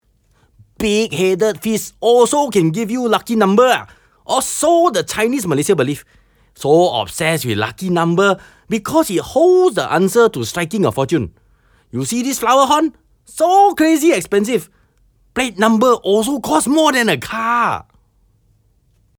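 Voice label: English Character Asian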